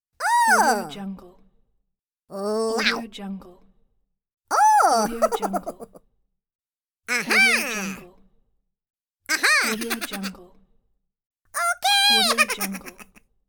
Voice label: cartoon minion discovering; applehillstudios